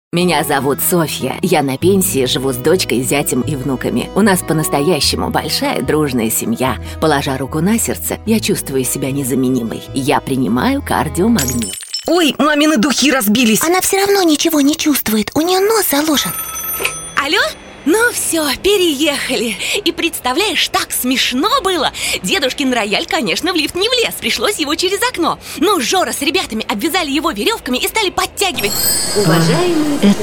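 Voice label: Russian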